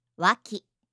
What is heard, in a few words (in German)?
"waki", japanische